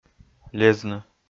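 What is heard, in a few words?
"лезны", Komi-Zyrian, male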